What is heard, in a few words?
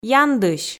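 "яндысь", Komi, female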